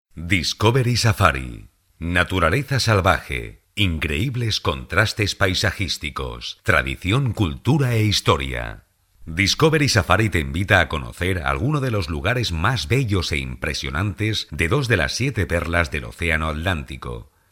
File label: narration